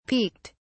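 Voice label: "peeked", English, British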